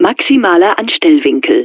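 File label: Maximum Angle Of Attack, German; Betty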